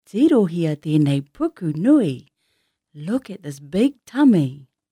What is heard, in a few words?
"puku", Māori